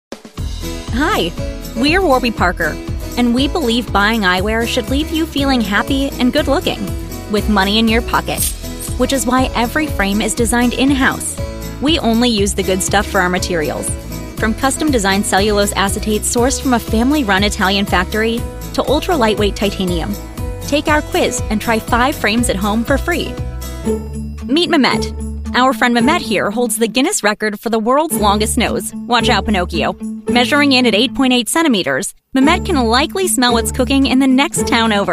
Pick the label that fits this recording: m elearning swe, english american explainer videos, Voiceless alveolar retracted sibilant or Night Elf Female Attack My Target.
english american explainer videos